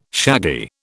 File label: "shaggy", British